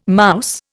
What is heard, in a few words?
"mouse", Indian